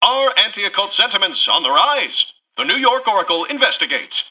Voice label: Newscaster headline